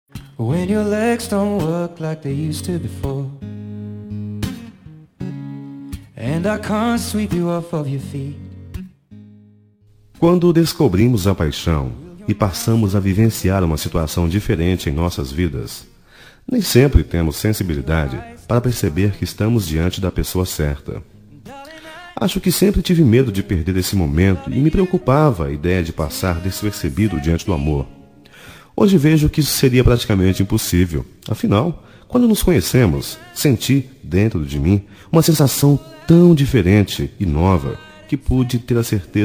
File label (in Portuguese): aniver esposa, Telemensagem de Aniversário de Esposa – Voz Masculina